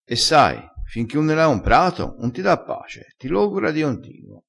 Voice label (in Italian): "logora", crespinese